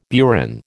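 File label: "burin", American English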